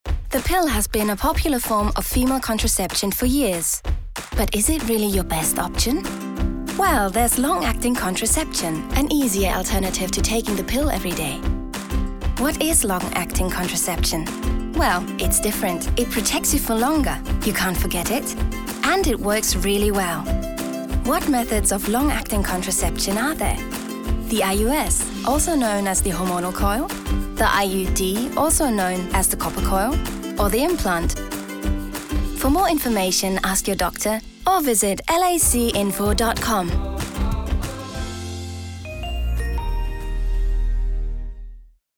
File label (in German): eng werbung